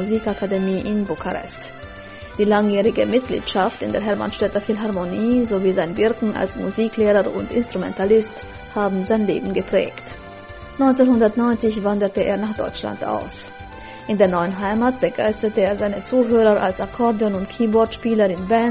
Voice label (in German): banater mundarten lenauheim